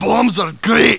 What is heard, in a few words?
annoyed; war2; humans; dwarven demolition squad